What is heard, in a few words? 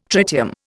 "tritium", Indian